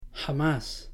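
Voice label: "jamás", Spanish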